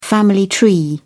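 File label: "family tree", English, British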